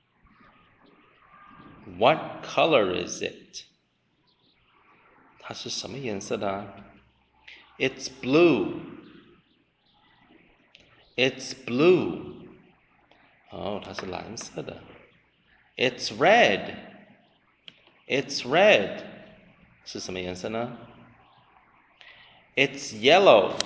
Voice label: conversation